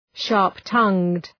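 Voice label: "sharp-tongued" English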